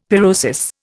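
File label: "pyrosis", Indian English; Dictionary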